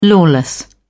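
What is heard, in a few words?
"lawless", British English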